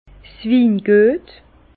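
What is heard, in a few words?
"winguet", Alsatian, Bas Rhin, terroir; vin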